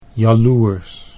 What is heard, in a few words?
"jaloers", Dutch; 'jealous'